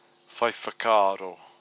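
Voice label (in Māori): "whaiwhakaro", Māori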